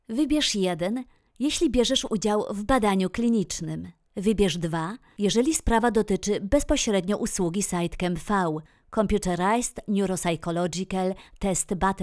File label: phone